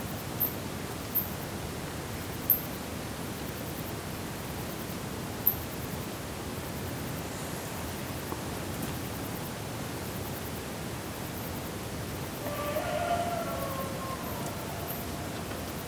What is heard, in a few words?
voice track